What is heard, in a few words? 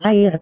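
"air", Indonesian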